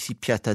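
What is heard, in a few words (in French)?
piatta si, Corsican, Rocca (sud); il/elle se cache; Corse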